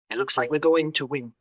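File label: Voice Acting es M, Spanish, male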